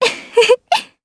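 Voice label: Vox Happy, Japanese; Talisha; kingsraid audio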